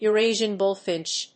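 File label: "Eurasian bullfinch", English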